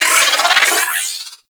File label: Vocal Warning; Warframe; Riot Moa